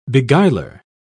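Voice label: "beguiler", en, uk British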